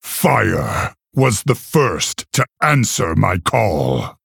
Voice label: Male Orc

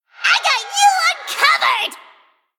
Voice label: Female Gnome Play

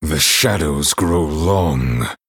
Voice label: Male Night Elf

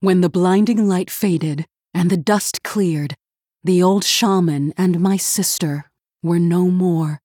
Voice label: Female Human Boss Reveal

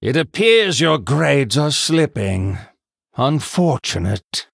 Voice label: Male Human Behind

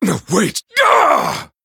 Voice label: Male Night Elf Concede

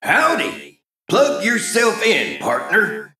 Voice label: Male Mech New Game

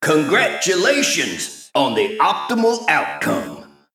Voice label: Male Mech Combat Win

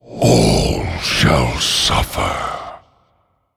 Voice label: Male Undead Bark; Arthas

